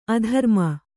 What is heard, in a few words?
"ಅಧರ್ಮ", kannada